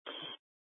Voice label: Fire Departments, scanner